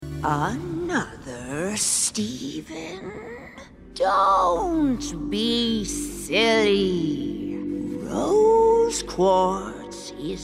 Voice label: ANIMATION